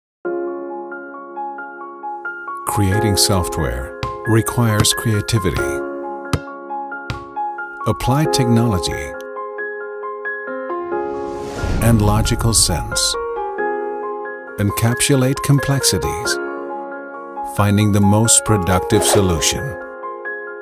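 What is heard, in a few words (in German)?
American English Werbung Sanft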